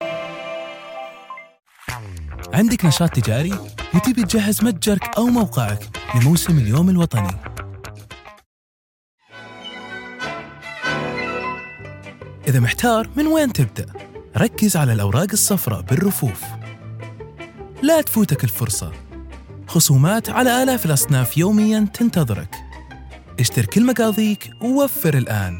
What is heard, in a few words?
voiceover